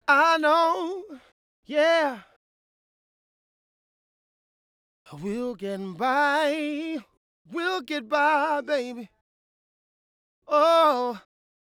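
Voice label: Get By Male adlib, 80, G major D major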